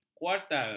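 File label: "kuartal", Malay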